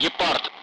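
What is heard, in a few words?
Speech, Russian; Allied Flight; AirDefence; Gepard; DCS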